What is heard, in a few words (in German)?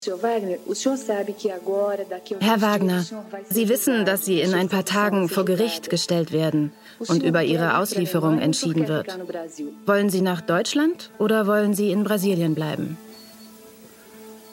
hoerspiel, sprecherin; ndr